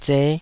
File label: "zeh5", taiwanese syllables